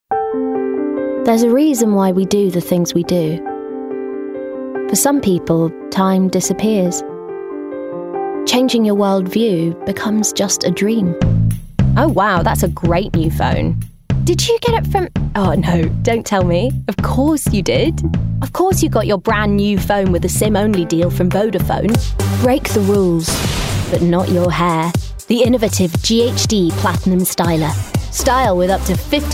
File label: Commercial Voicereel